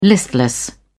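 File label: "listless", British English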